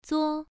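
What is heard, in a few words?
"zuo1", Chinese pronunciation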